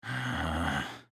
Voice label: Rune Emote Negative